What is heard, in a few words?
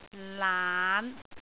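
"laan5", Cantonese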